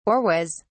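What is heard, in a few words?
"auras", British English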